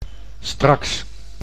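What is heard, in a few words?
"straks", Dutch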